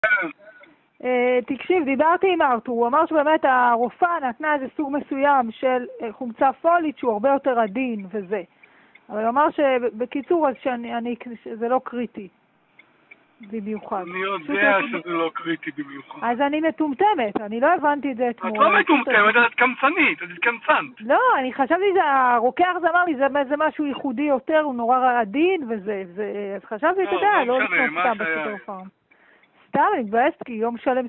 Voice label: Call recording